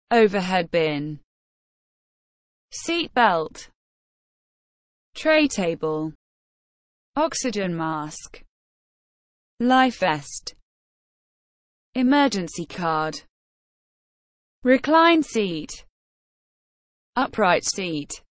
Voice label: inside an airplane